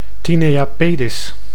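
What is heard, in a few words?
"tinea pedis", Dutch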